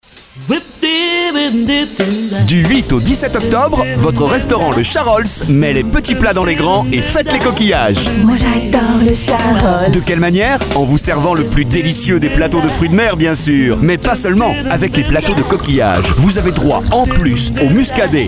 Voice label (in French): radio pub spot